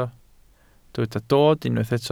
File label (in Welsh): "dod", Welsh